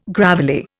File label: "gravelly", Indian